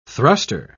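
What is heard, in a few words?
"thruster", English pronunciation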